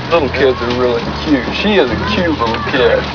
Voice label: cute kid